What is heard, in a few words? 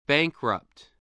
"bankrupt", English